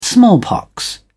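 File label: "smallpox", English, British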